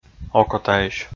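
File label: "окотаысь", Komi, male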